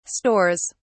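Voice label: "stores", English, British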